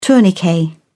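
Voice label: "tourniquet", British English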